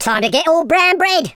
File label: "incoming", London Cabbie; speech